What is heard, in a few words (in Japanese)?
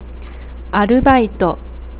"aruba", nagoyaben